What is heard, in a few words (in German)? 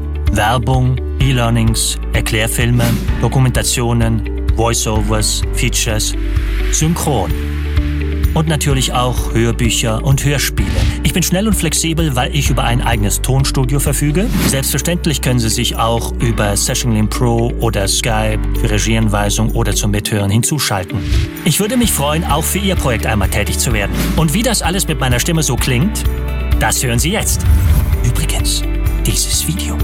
Sprecher voicetalent Showreel